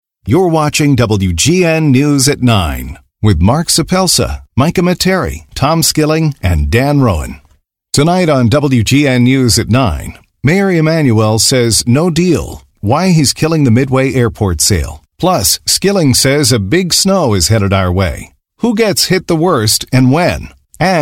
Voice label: tv promo